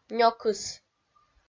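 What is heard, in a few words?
"нёкыс" Komi-Zyrian, female; kpv audio dict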